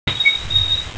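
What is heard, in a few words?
"chang4", Mandarin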